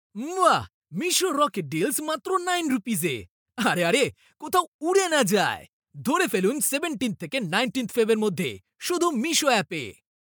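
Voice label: Bengali, Bangladesh, male; voice over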